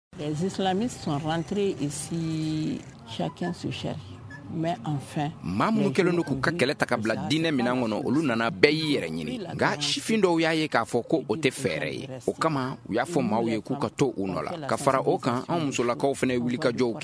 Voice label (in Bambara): magazine bamanankan